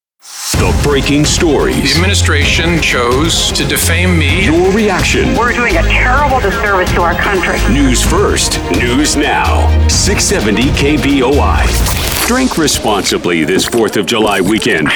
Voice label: voiceover examples